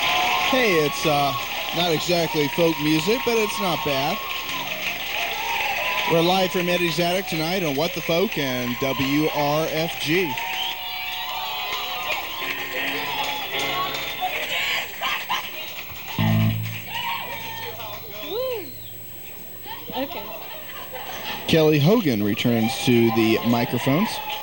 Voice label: radio announcer